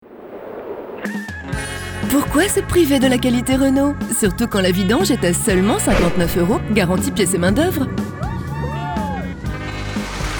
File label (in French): pub tv services ton naturel souriant evident; Pubs TV; Type de voix : Souriante, Naturelle; renault